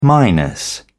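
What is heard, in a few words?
"minus", British English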